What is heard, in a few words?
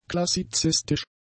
"klassizistisch", German; adjectives